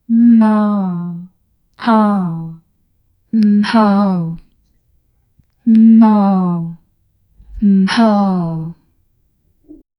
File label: a female says muffled mmh; affirmation